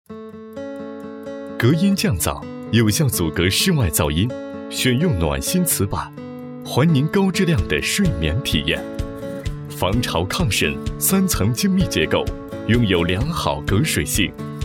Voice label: 自然轻松 宣传

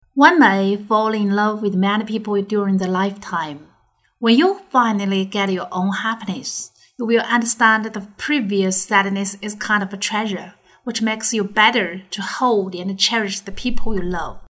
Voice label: 英语

